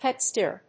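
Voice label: "pet sitter", English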